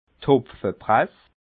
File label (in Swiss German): "hopfepress", alsace